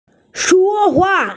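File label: "speak", Mandarin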